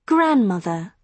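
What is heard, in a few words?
"grandmother", British English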